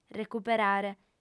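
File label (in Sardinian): "recuperare", female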